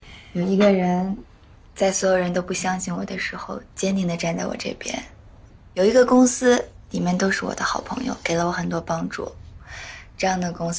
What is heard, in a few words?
drama